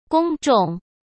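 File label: "公众", Mandarin Chinese; hsk; chinese-flashcards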